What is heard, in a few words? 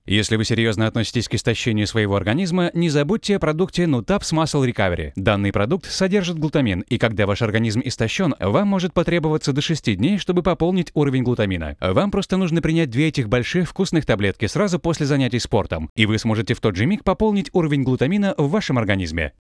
bistro rus